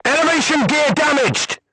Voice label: voice message vertical aiming drive damaged, vietnamese